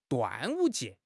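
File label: "端午节", Chinese; HSK6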